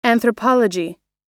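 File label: "anthropology", American English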